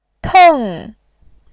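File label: "teng", Mandarin Chinese, fourth tone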